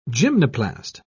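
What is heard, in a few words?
"gymnoplast", British English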